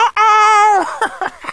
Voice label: uh oh

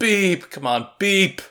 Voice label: Voices exasperated; the looker

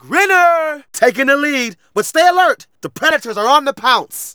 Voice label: Narration

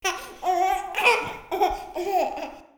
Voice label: Diner Voice

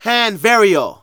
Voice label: Narration

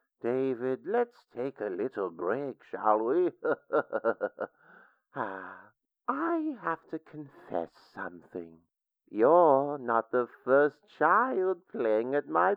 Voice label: Voices intro tape

